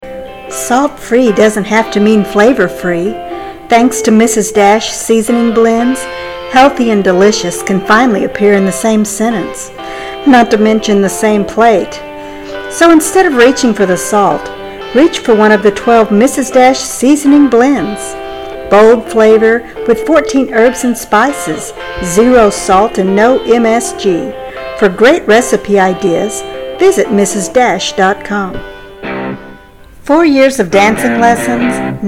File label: voiceover